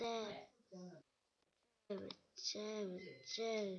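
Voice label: "jab", spoken word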